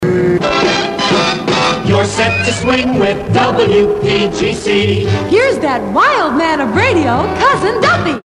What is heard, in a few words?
jingle female stager